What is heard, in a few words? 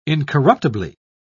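"incorruptibly", English, British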